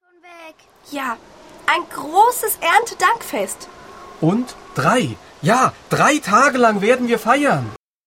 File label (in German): Hoerszene